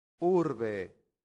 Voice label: "urbe", Latin; santillana